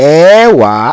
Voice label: "EEWAA", Yoruba